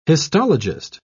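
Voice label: "histologist", British English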